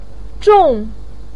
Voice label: "zhòng", voice pinyin

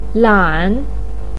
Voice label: "lan3", Chinese pinyin voice

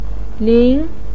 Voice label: "lin2", Chinese pinyin voice

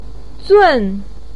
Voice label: "zùn", voice pinyin

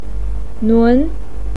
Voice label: "nun2", Chinese pinyin voice

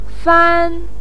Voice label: "fan1", Chinese pinyin voice

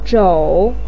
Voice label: "zhǒu", voice pinyin

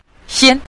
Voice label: "xian", Mandarin

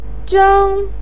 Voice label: "zhēng", voice pinyin

